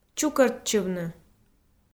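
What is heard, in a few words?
"чукӧртчывны", Komi-Zyrian, female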